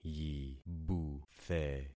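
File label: "yibuthe", concatenated syllables; Affixing experiment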